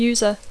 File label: "user", English; usuari; (col.loq) toxicòman